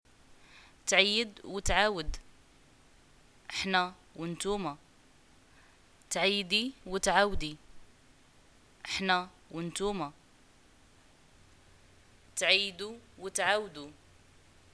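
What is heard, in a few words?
greeting eid and response, Moroccan Arabic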